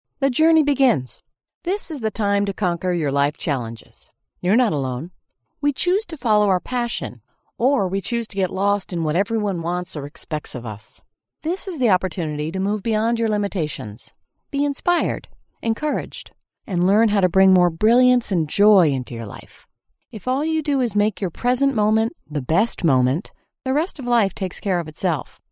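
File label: English American, female, voice over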